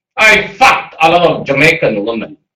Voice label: fuck jamaican lady